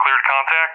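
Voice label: "cleared contact", English speech, Tanker; DCS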